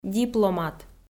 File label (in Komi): "дипломат", Komi